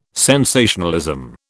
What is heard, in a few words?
"sensationalism", British